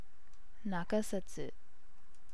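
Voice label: "nakasetsu", Japanese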